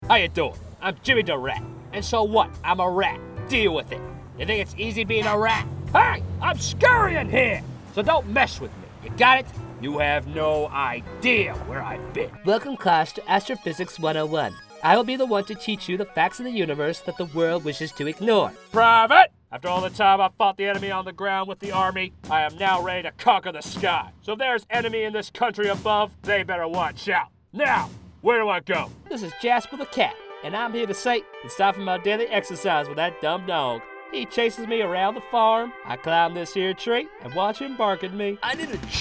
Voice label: Character